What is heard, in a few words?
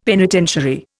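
"penitentiary", Indian English; Dictionary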